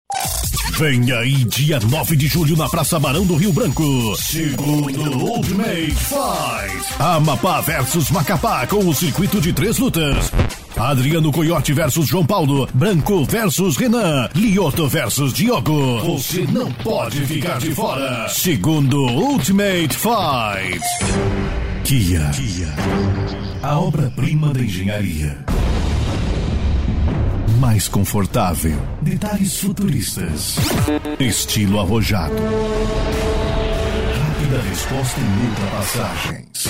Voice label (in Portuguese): locutor